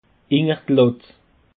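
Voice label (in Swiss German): "latt", Bas Rhin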